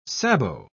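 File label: "sabot", British English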